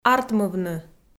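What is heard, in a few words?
"артмывны", female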